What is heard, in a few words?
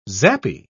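"zappy", British English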